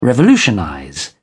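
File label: "revolutionize", British English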